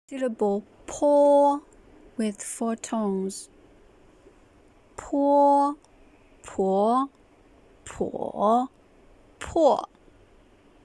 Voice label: po with 4 tones, Mandarin